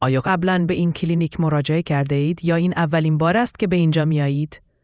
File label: question persian